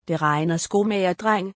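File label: rain, Danish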